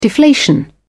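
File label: "deflation", British English, dictionary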